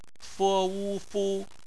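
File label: "fu" bopomofo, Chinese; phonetics; bpmf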